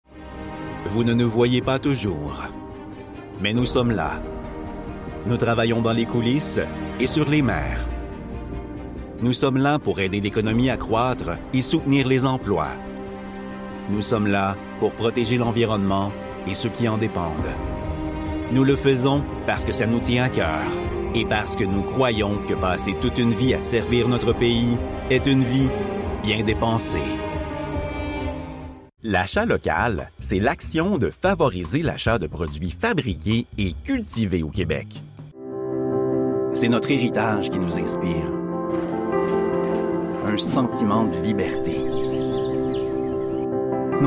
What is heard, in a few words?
french canadian commercial